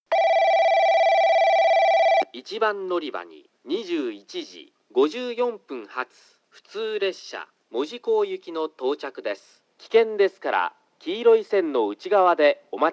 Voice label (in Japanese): 1番のりば接近放送（門司港行き）; 福工大前; 折尾・小倉方面